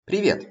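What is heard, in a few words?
Russian hello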